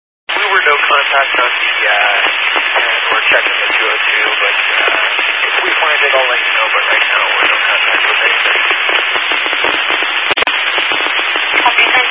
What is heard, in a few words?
Highway Patrol, scanner; DPS